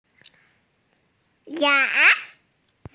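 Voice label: "yang2", Mandarin Chinese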